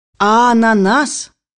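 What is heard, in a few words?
"pineapple", Russian; bukvar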